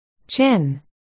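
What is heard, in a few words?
"chin", spoken word, articulation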